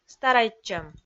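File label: "старайтчӧм", Komi, female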